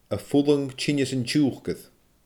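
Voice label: "a fulang tinneas an t siuucair", Scottish Gaelic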